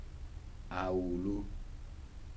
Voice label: "dark", Kibembe

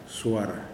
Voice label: "one", kuman

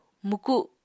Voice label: "mwku", Apatani

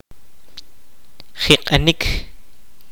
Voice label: "breathe", kaqchikel

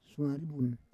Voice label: "suari vun", Roon

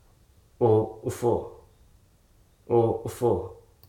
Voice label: "husk", Hruso

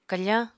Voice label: "kafáñ", Apatani

